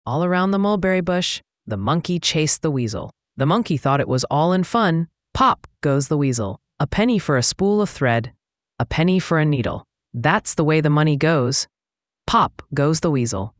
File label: fem us; All Around the Mulberry Bush; ttsmp3